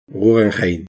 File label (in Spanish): g guggenheim, masculino; castilla